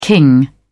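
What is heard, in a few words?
"king", British English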